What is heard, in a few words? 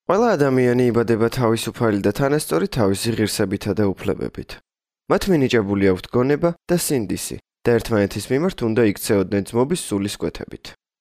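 udhr ka, Georgian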